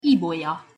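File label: "ibolya", Hungarian pronunciation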